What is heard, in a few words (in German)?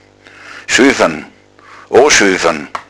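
"oschoefern", Bavarian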